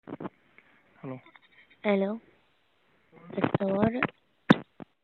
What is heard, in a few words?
Call recording Emergency number